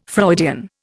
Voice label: "Freudian", Indian